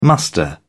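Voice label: "muster", British English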